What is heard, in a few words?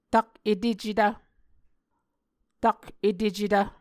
"tak etecetv", Muscogee